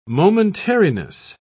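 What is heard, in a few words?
"momentariness", British English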